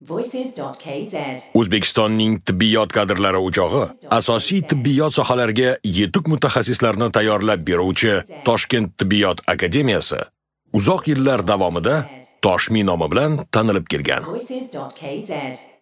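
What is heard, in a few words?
Dictor Uzbek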